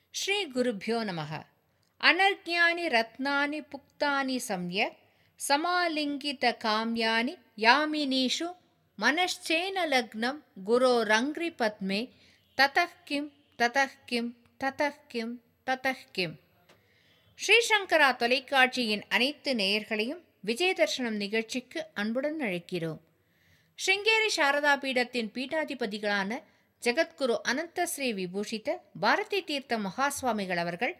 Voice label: Female Tamil VO Sample